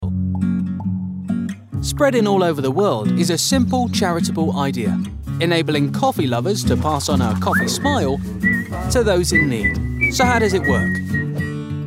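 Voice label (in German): British English, Werbung, Variabel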